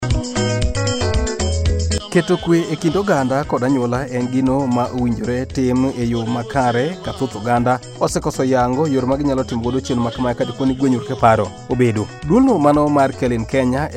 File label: Radio Spot on Mediation